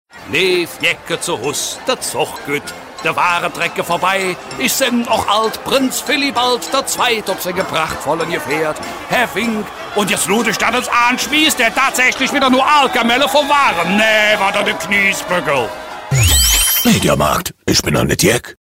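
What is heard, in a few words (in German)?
Dialekt Kölsch Karneval Werbung; Mediamarkt; LOFT VOICES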